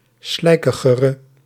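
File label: "slijkigere", Dutch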